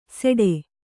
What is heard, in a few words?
"ಸೆಡೆ", kannada